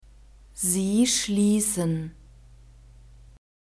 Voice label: "sie schliessen", German; verbs present tense irregular